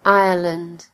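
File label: "ireland", British English; gcompris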